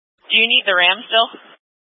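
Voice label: Incident Tac 1, scanner audio recording, Grand Junction, Mesa County, Colorado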